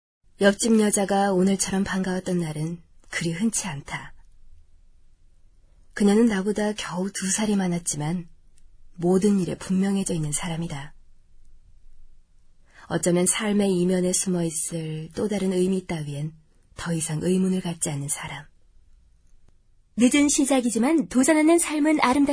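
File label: Korean, female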